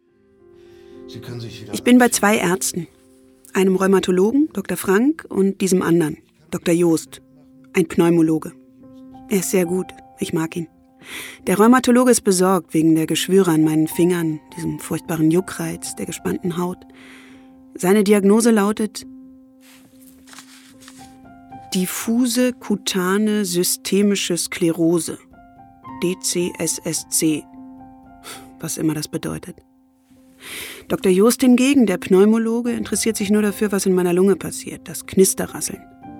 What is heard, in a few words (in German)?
3D-Hörspiel